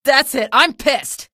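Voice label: citizen buddy killed, female; vo; npc; source engine; episodic; episode 1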